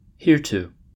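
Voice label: "hereto", US English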